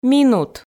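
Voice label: "минут", Komi, female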